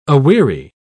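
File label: "aweary", British English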